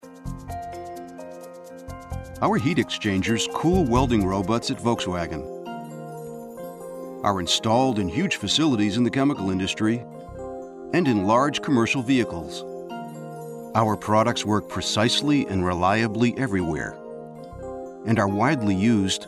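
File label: us englisch, US English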